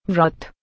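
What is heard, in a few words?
"vrat" Hindi, female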